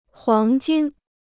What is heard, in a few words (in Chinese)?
"黄金", Chinese